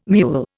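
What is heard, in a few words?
"mewl", English, Indian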